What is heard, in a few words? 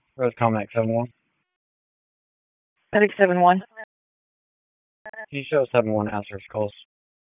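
EMS DISP, radio; wake; p25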